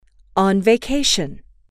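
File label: "on vacation", English, female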